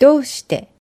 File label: "doushite", Japanese